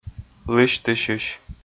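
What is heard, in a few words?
"лысьтысьысь" Komi, male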